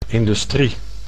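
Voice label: "industrie", Dutch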